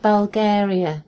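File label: "Bulgaria", British English; gcompris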